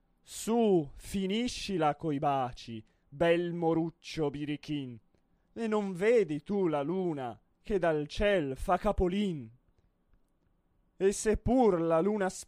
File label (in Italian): espressivo; Ciribiribin